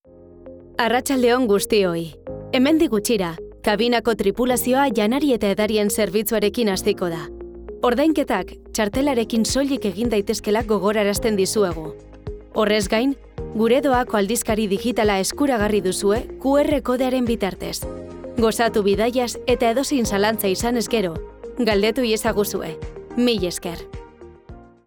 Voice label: Medios alternativos Euskera

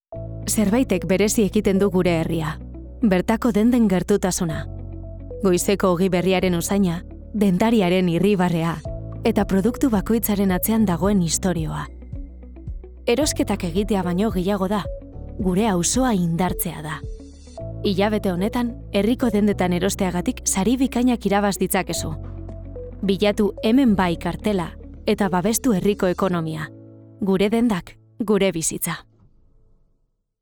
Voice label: Publi Euskera

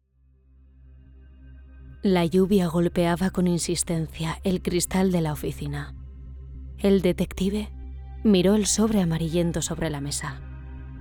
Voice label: Narrativo Castellano

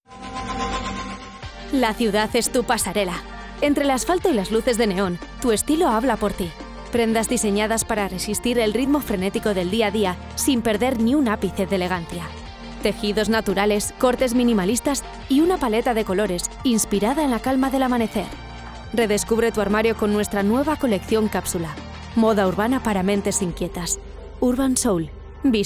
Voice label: Publi Castellano

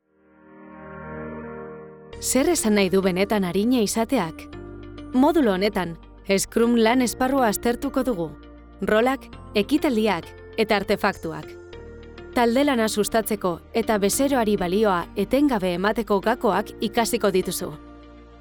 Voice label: E Learning Euskera

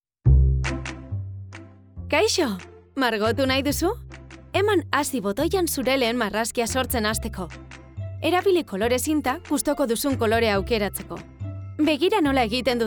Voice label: E Learning Euskera

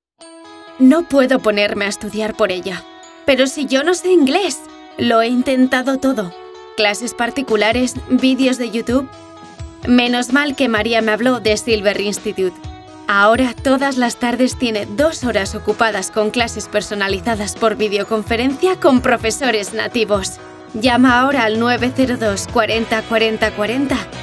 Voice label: RADIO CASTELLANO

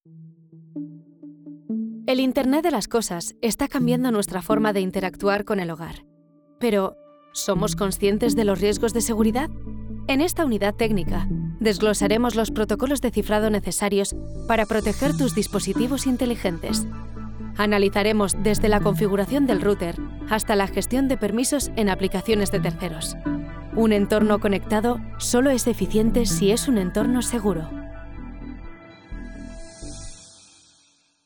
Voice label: E-Learning Castellano

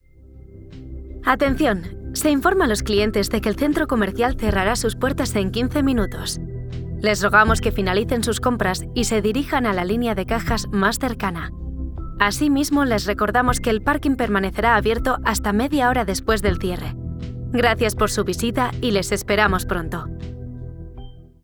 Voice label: Medios alternativos Castellano

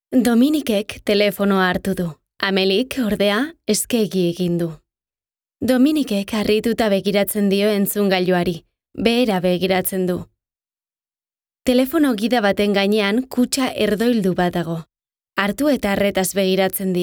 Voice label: NARATIVO EUSK DESCRIPCION

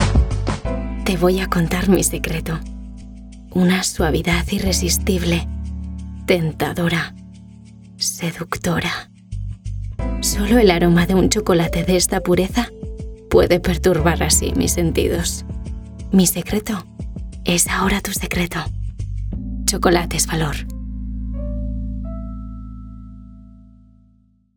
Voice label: PUBLICIDAD CAS

